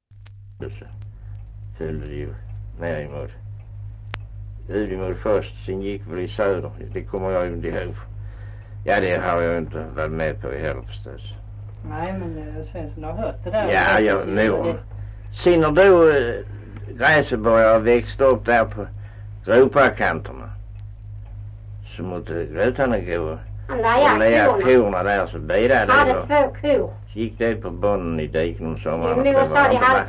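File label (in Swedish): Östra Ingelstad Skåne, dialekter; Folkminnen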